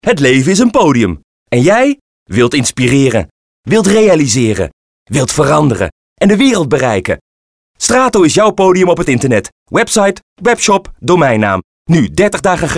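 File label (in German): Dutch werbung, voicesamples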